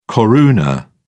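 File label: "koruna", British English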